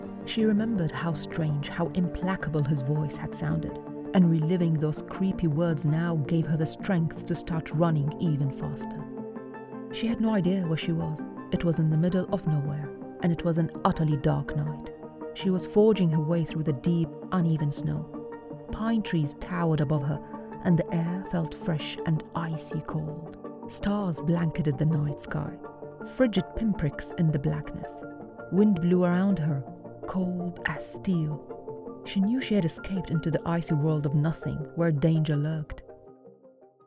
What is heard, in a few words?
Narration mystery